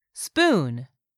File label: "SPOON", english; 3000 words